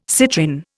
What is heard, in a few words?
"citrin", Indian